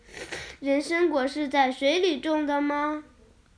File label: Chinese Children Speech, Mobile phone